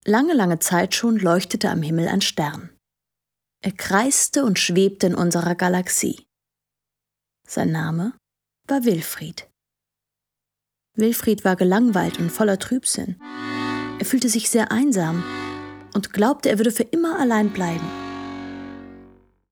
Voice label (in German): Hörbuch